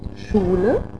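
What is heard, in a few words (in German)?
"schule", German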